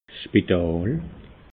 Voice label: "spital", alsace

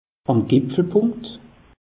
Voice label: "gipfelpunkt", alsace; meteo

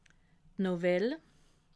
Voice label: "novell", alsace

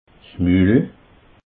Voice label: "mul", alsace

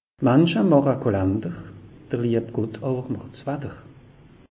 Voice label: "mensche", alsace; meteo

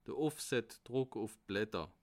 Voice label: "blatter", alsace

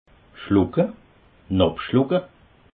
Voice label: "schlucke", alsace

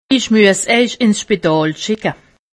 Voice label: "spital", alsace; medecine

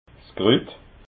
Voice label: "krut", alsace

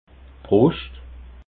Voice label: "bruscht", alsace

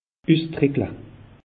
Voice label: "ustrickle", alsace; meteo

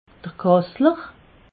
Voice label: "kassler", alsace

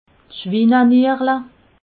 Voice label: "schwinenierle", alsace

